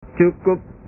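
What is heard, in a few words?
"cukup", Indonesian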